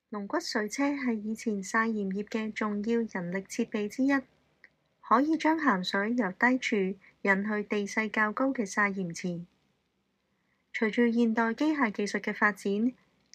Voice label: Canto